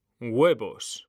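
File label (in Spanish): "huevos", Spanish, male; mekanta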